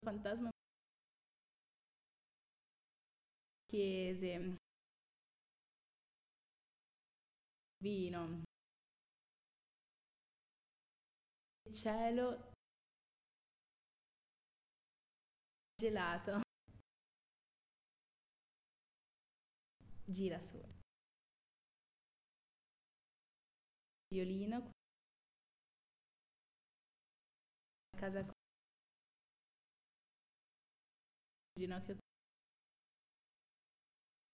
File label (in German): Zusammengefugte Worter Langsam Italienisch